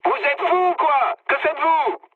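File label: player killed ally, mood medium, French; War Thunder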